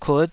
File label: "kut5", Taiwanese syllable